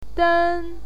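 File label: "den1", Mandarin Chinese pinyin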